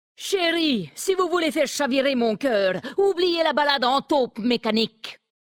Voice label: Femme Flirt; Taupe mecanique; Nain sombrefer

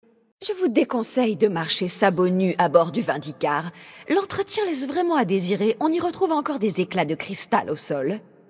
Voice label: Female; Draenei Sancteforge; Blague

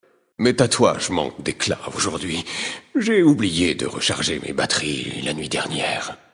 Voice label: Male; Draenei Sancteforge; Blague

